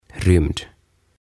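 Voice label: "Rymd" Swedish; Avaruus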